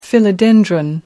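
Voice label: "philodendron", British English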